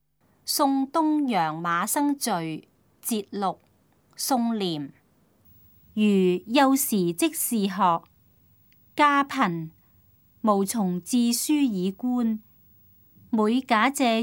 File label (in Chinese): cantonese; 送東陽馬生序（節錄）; 粵語